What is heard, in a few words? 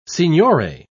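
"signore", English, British English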